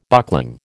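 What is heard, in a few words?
"buckling", American English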